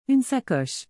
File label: "une sacoche", Québécois French